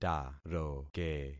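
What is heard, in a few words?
"taroke", concatenated syllables; Affixing experiment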